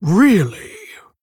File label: female tauren; Helka; Valeera Mission2 Victory